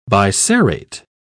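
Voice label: "biserrate", British English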